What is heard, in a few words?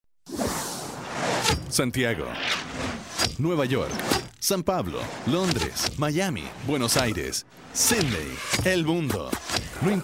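Latin American Spanish Commercial; GoLocalise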